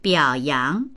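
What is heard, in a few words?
"biao yang", Mandarin; 表扬; 表彰する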